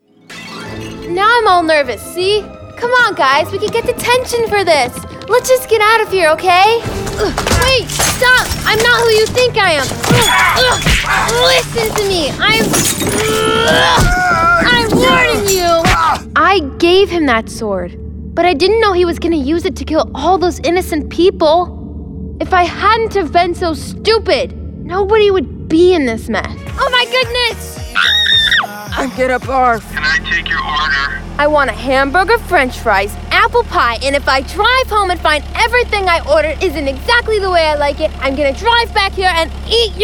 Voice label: Character